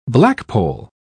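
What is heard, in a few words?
"blackpoll", British English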